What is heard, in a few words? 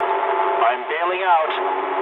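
English bailout mood low; speech; War Thunder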